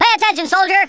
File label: watch this, Drill Sergeant; Worms Armageddon